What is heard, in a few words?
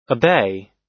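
"obey", US English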